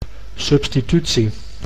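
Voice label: "substitutie", Dutch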